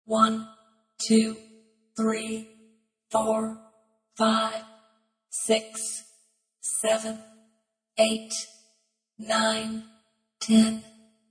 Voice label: Computer voice, counting numbers from 1-19, speaking; human voice; Computers; VoiceBox